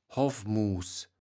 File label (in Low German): "Hoffmuus", kaevels platt